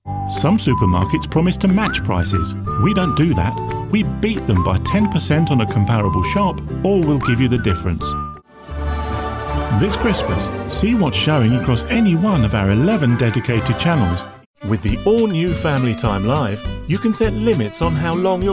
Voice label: english british promos